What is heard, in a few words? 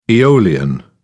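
"eolian", English, British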